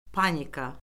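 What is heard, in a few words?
"panika", Azerbaijani; Orfoqrafiya Lüğəti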